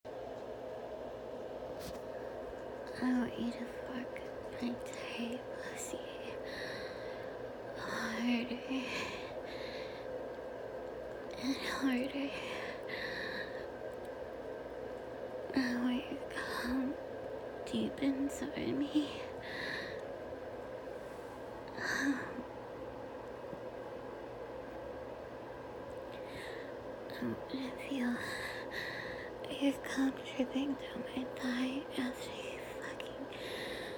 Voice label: voice message